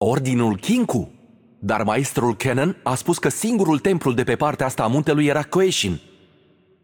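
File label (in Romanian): Intro, Romanian; VO; Yunara; bloom minigame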